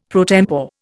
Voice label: "pro tempore", Indian; Dictionary

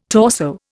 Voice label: "torso", Indian

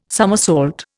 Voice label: "summersault", Indian

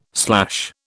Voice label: "slash", British

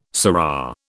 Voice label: "surra", British

